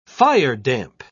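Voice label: "firedamp", British English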